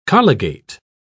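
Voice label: "colligate", British English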